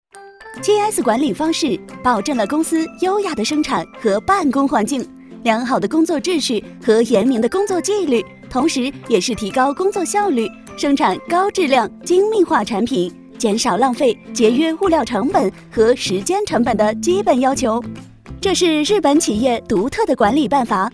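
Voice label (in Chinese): 女国大音【MG】活泼-管理方式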